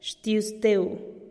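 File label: "xtiuzteu", Teotitlán del Valle Zapotec; muchas gracais (tu)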